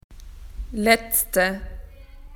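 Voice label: "last", Australian English; PictureDictionary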